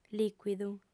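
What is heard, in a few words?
"lìcuidu", female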